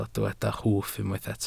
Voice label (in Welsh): "hwff" Welsh